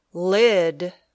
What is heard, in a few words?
"lid", English